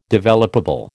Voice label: "developable", American

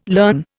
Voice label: "learn", Indian

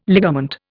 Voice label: "ligament", Indian

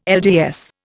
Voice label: "LDS", Indian

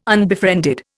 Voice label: "unbefriended", Indian

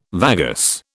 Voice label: "vagus", British

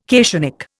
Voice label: "cationic", Indian

